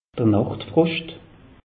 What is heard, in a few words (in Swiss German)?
"nacht froscht", alsace; meteo